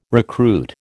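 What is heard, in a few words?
"recruit", American English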